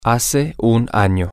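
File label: one year ago, Spanish, male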